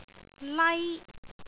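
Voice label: "lai1", Cantonese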